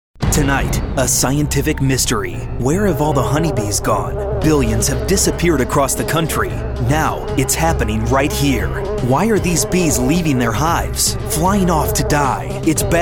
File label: news